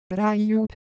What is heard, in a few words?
Semai, ideophones; Motion